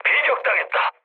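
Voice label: Korean aircraft damaged mood low; War Thunder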